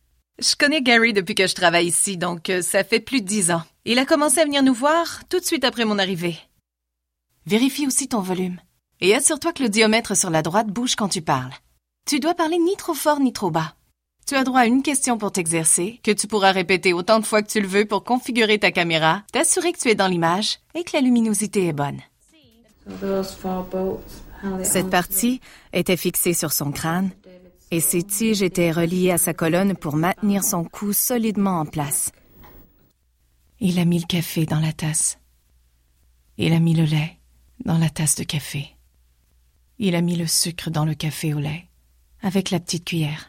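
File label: French Canadian, female, showreel; voice over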